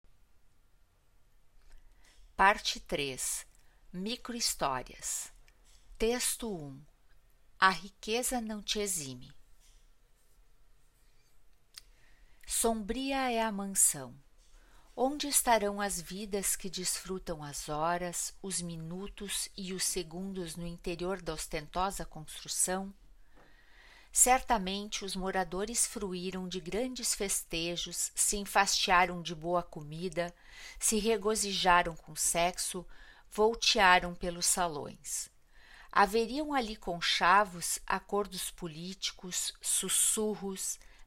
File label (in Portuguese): narração; galeria virtual